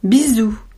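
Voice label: "bisou", French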